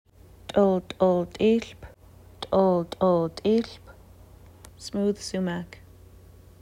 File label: t̓lt̓ltilp, Nsyilxen Plant Names; smooth sumac